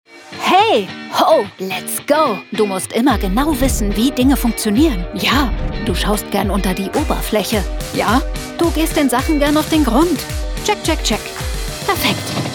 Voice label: german corporate videos